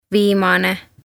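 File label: "Viimane" Estonian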